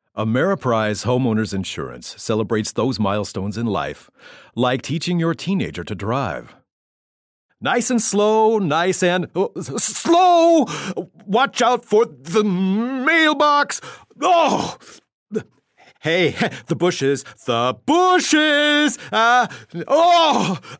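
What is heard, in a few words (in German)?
American English Variabel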